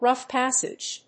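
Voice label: "rough passage", English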